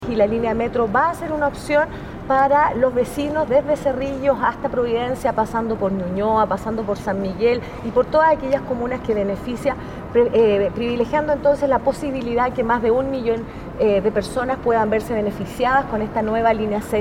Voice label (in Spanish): cuña